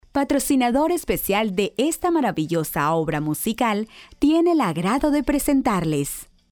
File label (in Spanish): locutora hondurena